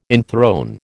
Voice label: "enthrone", American